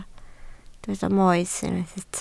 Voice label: "moes", Welsh

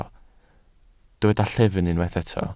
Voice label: "llyfn", Welsh

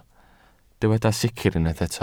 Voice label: "sicr", Welsh

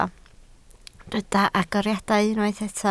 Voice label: "agoriadau", Welsh

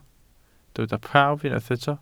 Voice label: "prawf", Welsh

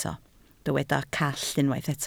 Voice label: "call", Welsh

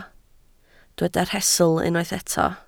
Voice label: "rhestl", Welsh

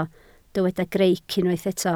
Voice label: "greic", Welsh

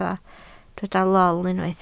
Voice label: "lol", Welsh

